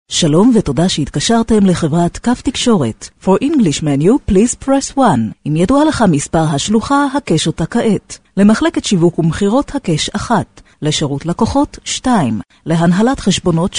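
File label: Female Hebrew IVR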